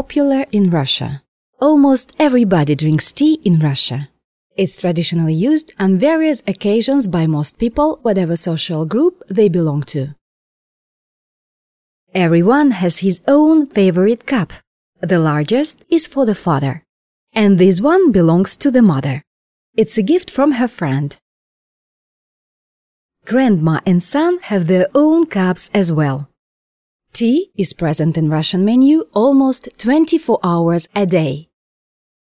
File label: Woman, eng, announcer; английский; женский; средний; реклама; новости